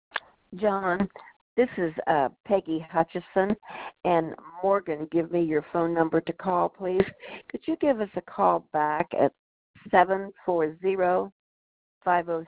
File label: Voice Message